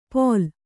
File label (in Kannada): "ಪೋಲ್", Kannada